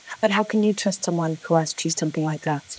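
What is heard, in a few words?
voice acting, es, female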